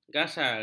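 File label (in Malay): "gasal", Malay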